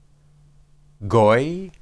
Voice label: "goy", Hebrew